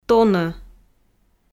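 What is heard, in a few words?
"тонӧ", Komi, female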